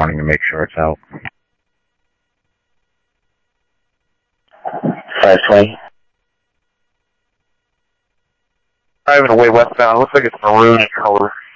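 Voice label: Lakes Sheriff Lake Patrol, scanner audio trunk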